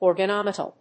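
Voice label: "ORGANOMETAL", English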